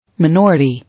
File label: "Minority", English